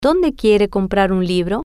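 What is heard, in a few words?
Donde Quiere Comprar Libro, Spanish, female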